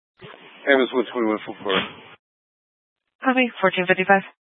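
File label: Fire Dispatch, Scanner audio recording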